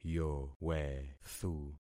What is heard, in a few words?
"yowethu", concat syllables; Affixing experiment